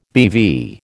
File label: "BeV", American